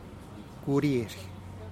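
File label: "kuriezhih", Teotitlán del Valle Zapotec; cabbage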